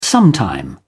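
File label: "sometime", British English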